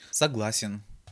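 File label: "soglasen", Russian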